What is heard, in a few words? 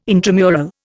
"intramural", Indian